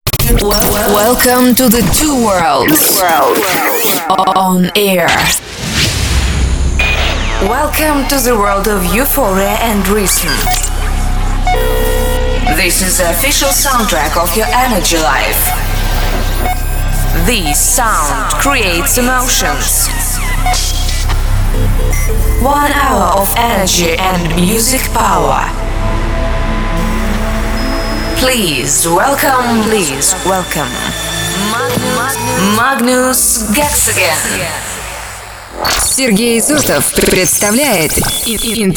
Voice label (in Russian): Женский голос